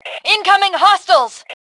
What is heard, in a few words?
Warn Enemies, Female; T2 Female 03